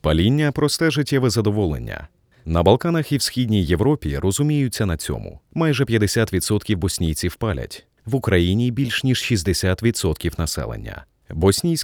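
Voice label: narration zg, Ukrainian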